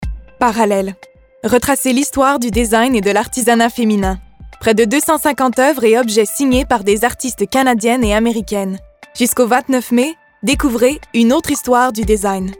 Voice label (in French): voix femmes; MBA